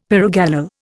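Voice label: "pyrogallol", Indian English; Dictionary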